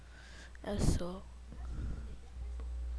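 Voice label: "also", spoken word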